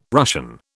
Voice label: "Russian", British